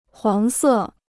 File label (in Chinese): "黄色", Chinese